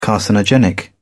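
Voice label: "carcinogenic", British English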